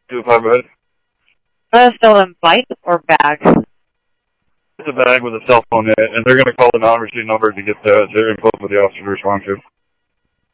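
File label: Mesa Police Department, scanner audio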